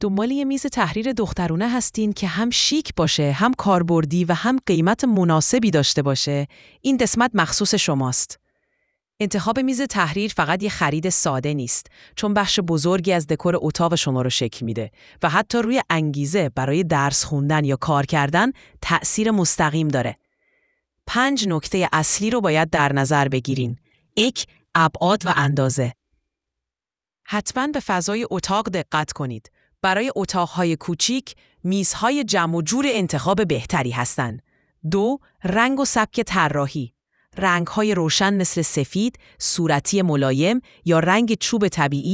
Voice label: shimmer friendly; openai-fm